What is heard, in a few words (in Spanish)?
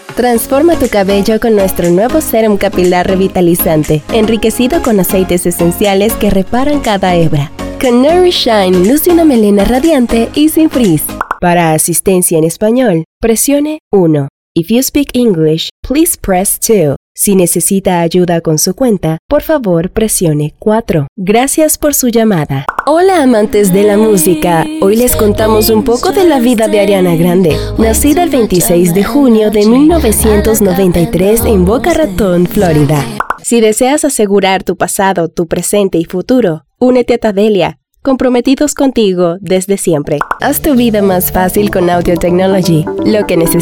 neutro, espanol neutro